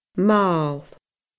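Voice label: "màl", Scottish Gaelic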